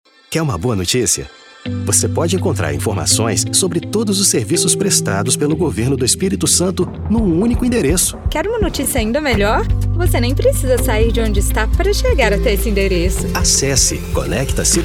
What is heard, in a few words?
Spot; Conecta Cidadao Governo do ES